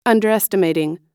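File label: "underestimating", US English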